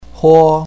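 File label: "huo1", Chinese pinyin, first tone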